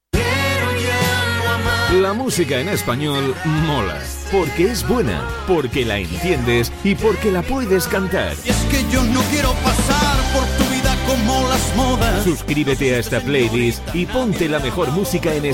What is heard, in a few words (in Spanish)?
anuncio spotify musica en espanol